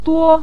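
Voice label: "duo" Mandarin Chinese, neutral tone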